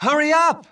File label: Male; baldurs1